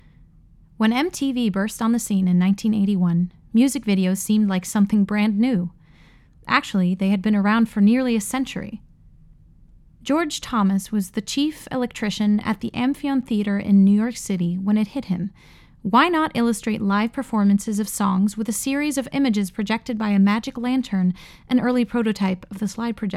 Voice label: English; The Greatest Music Stories Never Told